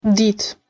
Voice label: "dite", Albanian